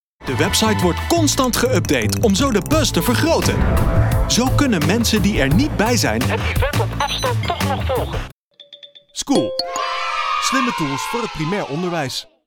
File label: Voice samples Commercial